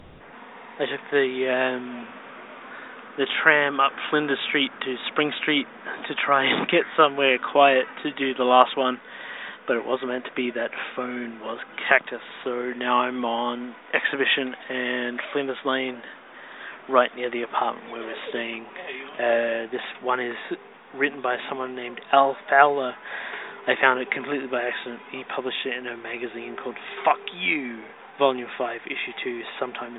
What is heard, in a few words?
telephone conversation